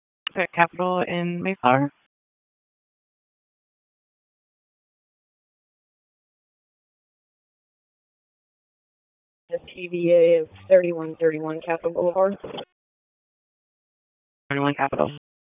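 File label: Police Northeast District Disp, radio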